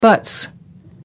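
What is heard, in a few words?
"buts", English, British English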